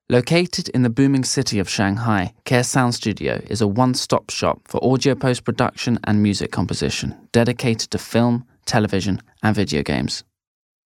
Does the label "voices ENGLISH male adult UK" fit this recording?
Yes